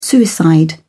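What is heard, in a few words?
"suicide", British English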